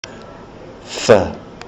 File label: f as in whanau, kiwi english